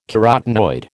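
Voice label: "carotenoid", American English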